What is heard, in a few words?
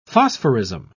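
"phosphorism", British English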